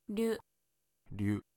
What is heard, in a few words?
"ryu", Japanese